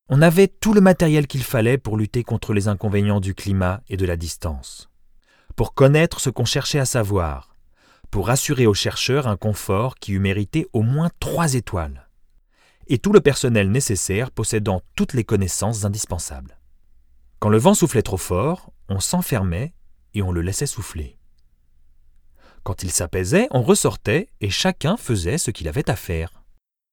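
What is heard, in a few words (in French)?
audiobook medium pose, voix off masculine; Je peux adopter tous les styles avec ma voix off masculine naturelle, posée, souriante ou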